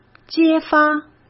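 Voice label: "揭发", Mandarin Chinese; chinese flashcards; hsk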